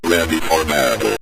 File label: ready For Battle, Synth Voice; Kenney's Sound Pack